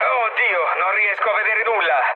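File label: Italian searchlite mood low, speech; War Thunder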